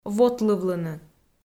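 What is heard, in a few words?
"вотлывлыны", Komi, female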